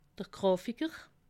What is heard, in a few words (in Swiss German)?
"grafiker", alsace